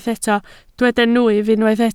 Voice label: "nwyf", Welsh

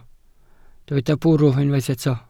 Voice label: "bwrw", Welsh

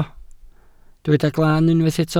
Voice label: "glan", Welsh

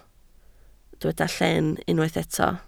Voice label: "llen", Welsh

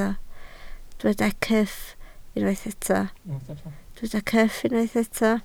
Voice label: "cyff", Welsh

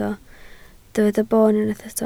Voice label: "boon", Welsh

